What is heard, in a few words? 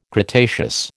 "cretaceous", American